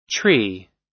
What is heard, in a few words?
"tree", us